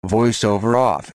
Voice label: VoiceOver Off, en; EFI Audio

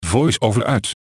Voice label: VoiceOver Off, nl; EFI Audio

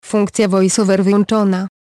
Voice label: VoiceOver Off, pl; EFI Audio

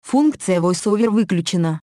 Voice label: VoiceOver Off, ru; EFI Audio

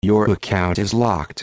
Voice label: Account Locked, en; EFI Audio

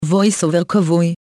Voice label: VoiceOver Off, he; EFI Audio

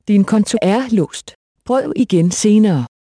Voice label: Account Locked Try Later, da

